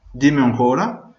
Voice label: say again, venetian